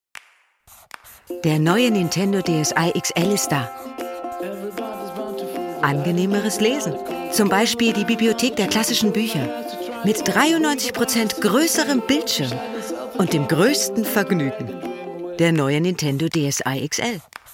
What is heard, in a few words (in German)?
Werbung